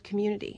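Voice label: "communiDy", American English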